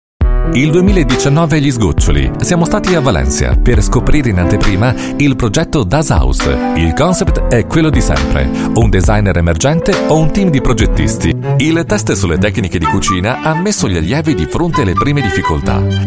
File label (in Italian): Italian Speech